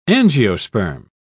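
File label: "angiosperm", British English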